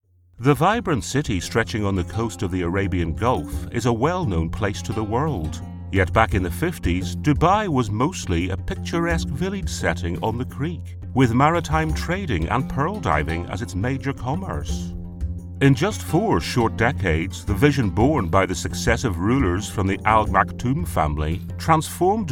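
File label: history, male; Dubia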